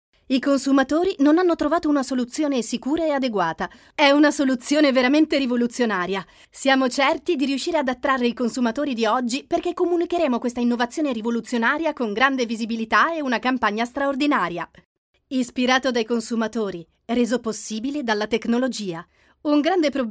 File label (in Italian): locutora italiana italian voiceover, italian female voice over